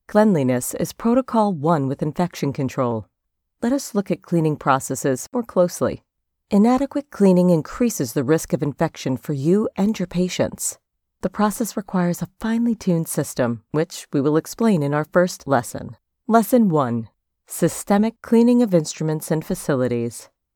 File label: english us and canada female e learning